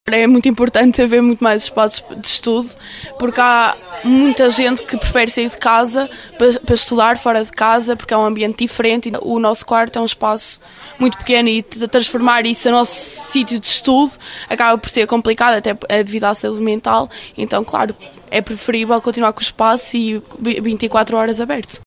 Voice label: VOx POP